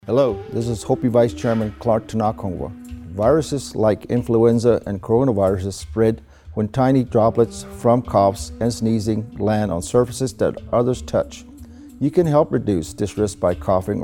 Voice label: PSA radio